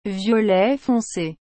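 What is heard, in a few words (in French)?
"violet foncé", French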